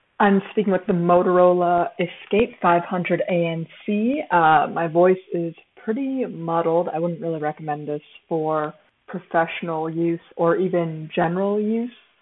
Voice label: Motorola Escape 500 ANC microphone